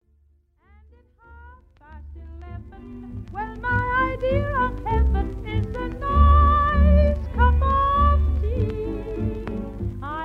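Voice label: Chinese Mandarin voice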